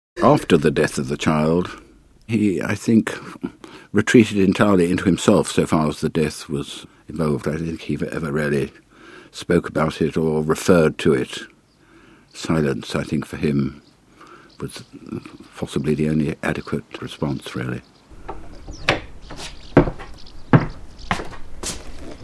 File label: earth song old lady; bbc radio 4